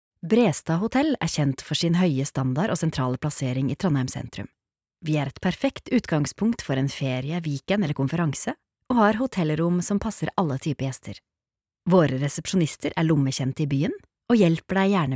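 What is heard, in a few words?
Norwegian informative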